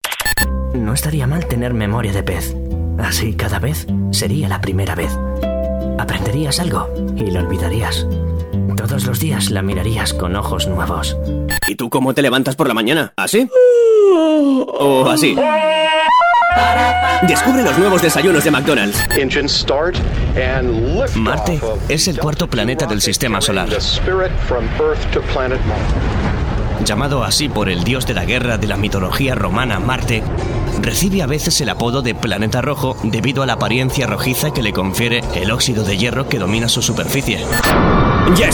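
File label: Spanish European General; GoLocalise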